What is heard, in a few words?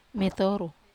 "metoru", Wamesa